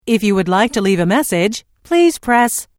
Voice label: If You Would Like To Leave A Message Please Press, Telephone prompt; Pre-recorded telephone on-hold message; Recorded Voice Sound Effects